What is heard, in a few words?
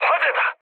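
Korean on fire mood med; War Thunder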